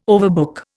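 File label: "overbook", Indian English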